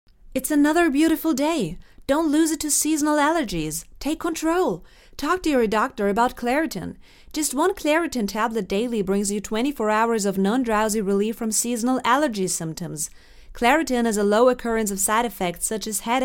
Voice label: COMMERCIAL US; CLARITIN